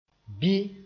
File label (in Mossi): "bɩ", moore